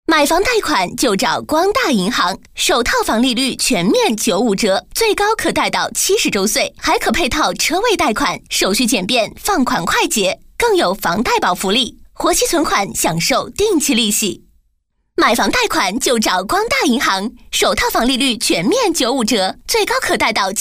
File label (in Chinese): 女, 广告配音, 银行贷款; 光大银行